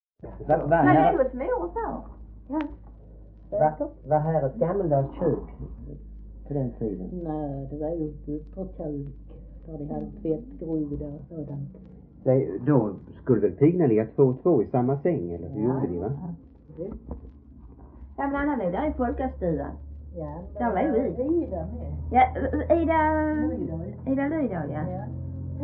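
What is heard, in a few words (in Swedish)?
Äspö Skåne, Svenska sagor, dialekter; Folkminnen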